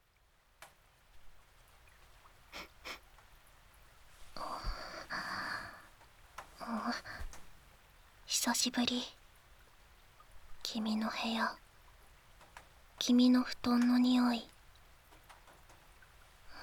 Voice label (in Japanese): 雨降りバージョン, ASMR; 田舎のダウナー幼馴染の子作りを手伝って…